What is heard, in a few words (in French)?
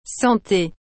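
"Santé", French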